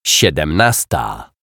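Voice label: "siedemnasta", Polish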